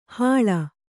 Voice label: "ಹೂಳ್", Kannada